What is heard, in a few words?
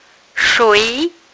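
"shui2", Mandarin Chinese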